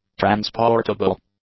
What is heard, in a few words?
"transportable", English; adjectives